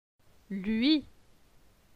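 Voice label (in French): "Lui", French; phonetique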